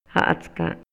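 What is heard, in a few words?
Maya, male; INALI; MayaYuc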